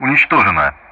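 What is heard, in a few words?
Speech Sound Russian; Common Player destroyed; DCS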